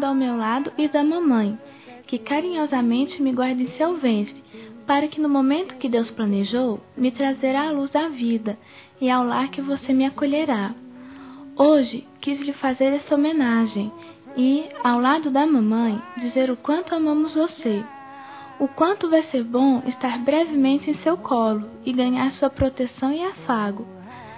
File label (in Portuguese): Filha ainda não nasceu, fem; Telemensagens 2017; Dia dos Pais